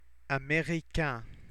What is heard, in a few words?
"americain", French